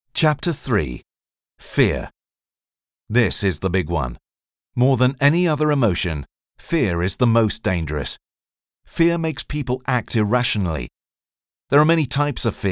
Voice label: english british audiobooks

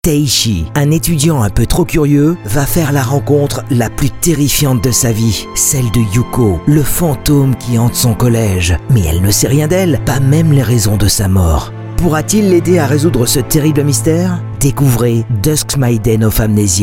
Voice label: french video games

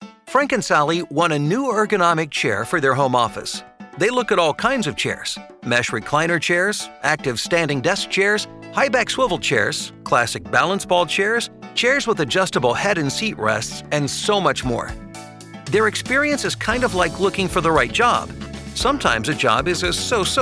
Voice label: english american explainer videos

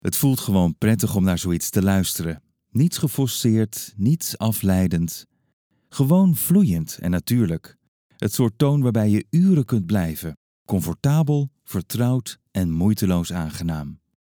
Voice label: dutch corporate videos

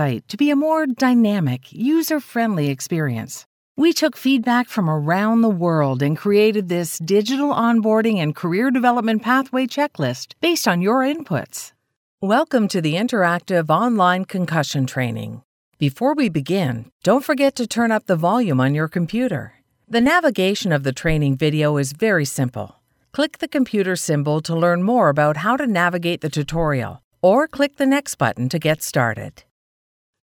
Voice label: english canadian e-learning

